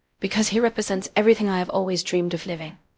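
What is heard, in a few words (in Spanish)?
Voice Acting, es, female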